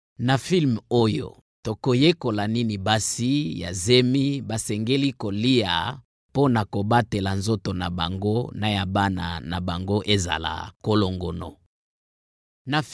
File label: Lingala